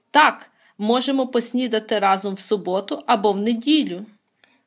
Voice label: dialogue, Ukrainian